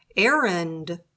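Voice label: "errand", English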